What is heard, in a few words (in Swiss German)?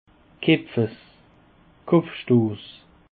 "kepfess", Alsace